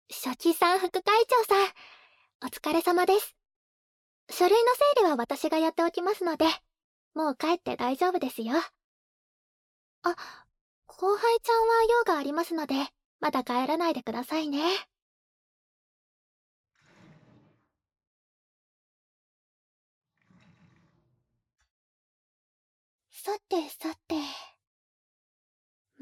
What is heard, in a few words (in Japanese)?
囁き＆耳かき（耳かき棒・綿棒・梵天）, ASMR; SEあり; ヤンデレ生徒会役員様; バブみ耳かき; 密着ウィスパーボイス; KU100